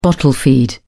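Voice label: "bottle feed", British English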